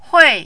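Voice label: "hui", fourth tone, Mandarin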